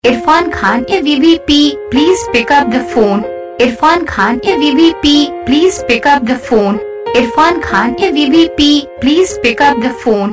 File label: pick up the phone saying, Ringtones